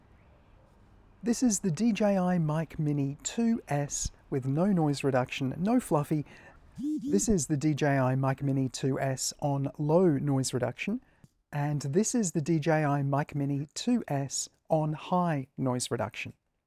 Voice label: DJI Mic Mini 2S Noise Reduction; review dji mic mini 2s